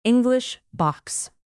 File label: "english box", English